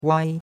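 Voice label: "wai1", Mandarin Chinese; Cours-de-Chinois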